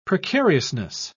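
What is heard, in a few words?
"precariousness", British English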